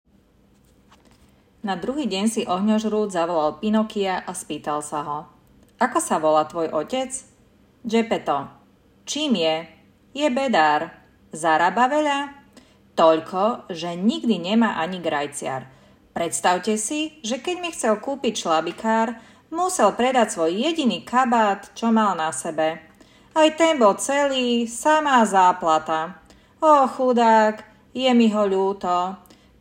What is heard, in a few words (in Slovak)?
Hlasova nahravka, zensky hlas voice over